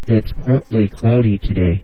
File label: low voice; MorphVOX Pro